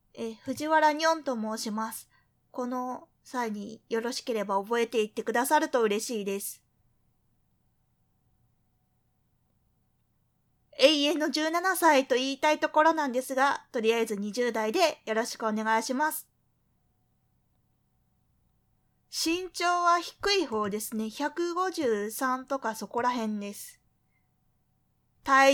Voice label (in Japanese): 自己紹介とインタビュー 回答 モノラル